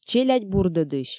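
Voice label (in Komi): "челядь бурдӧдысь", Komi, female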